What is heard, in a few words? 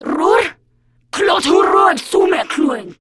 Patrol, Grineer Female; Warframe; Battle Barks